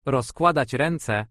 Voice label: throw in the towel polish; rozkładać ręce